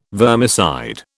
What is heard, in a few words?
"vermicide", British